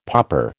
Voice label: "popper", American